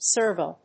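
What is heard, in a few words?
"serval", English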